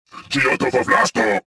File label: suspicious; XBOX